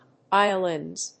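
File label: "violins", English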